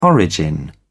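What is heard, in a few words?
"origin", British English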